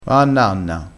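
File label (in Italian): "nanna", dialettale crespinese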